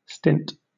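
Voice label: "stint", British English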